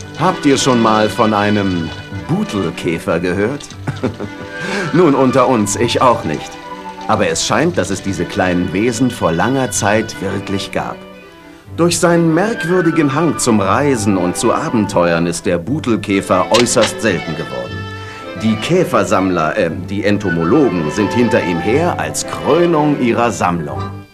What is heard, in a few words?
narrator; "Bottle Beetle"